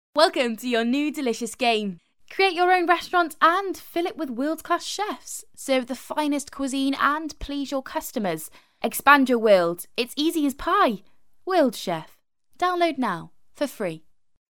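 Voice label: Welsh English, female, voice over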